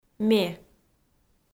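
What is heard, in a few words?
"ме" Komi, female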